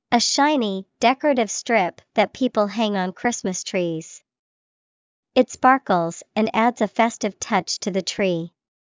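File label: tinsel, English vocabulary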